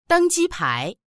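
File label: "deng1ji1pai2", Mandarin Chinese; dēngjīpái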